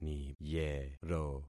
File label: "niyero", concat syllables; Affixing experiment